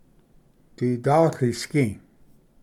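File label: "ditatlisgi", Cherokee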